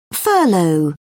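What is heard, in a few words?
"furlough", British English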